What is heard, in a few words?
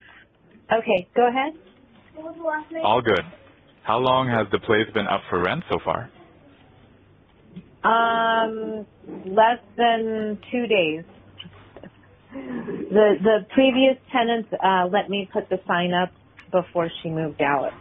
call sample stereo